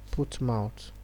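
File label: "put mouth", Nigerian Pidgin, male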